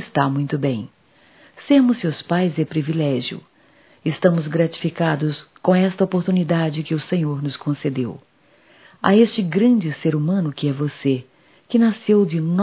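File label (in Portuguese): Ano novo para filhos female; Natal & Ano Novo; Telemensagens 2017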